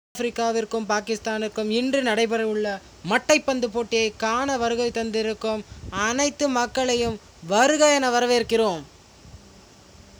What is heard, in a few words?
tamil